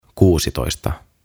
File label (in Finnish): "Kuusitoista" Sixteen; OTAVA KONSERNI; tuhatsanaa; aantamisohjeet suomeksi